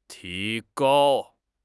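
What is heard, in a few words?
"ti2 gao1", Mandarin Chinese; HSK3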